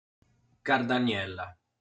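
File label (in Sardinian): "Cardaniella", Sardinian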